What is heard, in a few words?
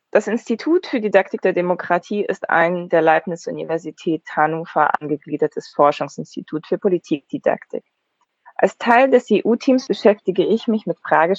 german